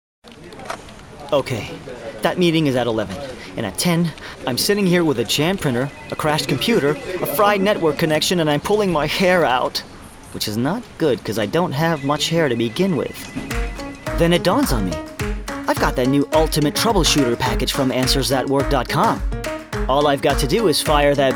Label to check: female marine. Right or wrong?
Wrong